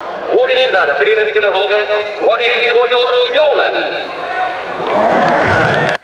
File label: distant PA system race announcement with crowd; ambience